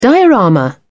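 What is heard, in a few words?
"diorama", British English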